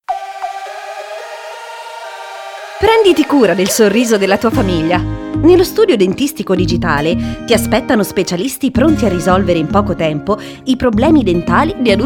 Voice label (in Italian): Studio Dentistico Spot; Studio Dentistico Petrone